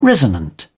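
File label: "resonant", British English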